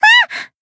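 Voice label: Voice damaged Japanese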